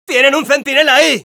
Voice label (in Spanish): Scout sentry ahead, es